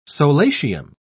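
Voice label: "solatium", English, British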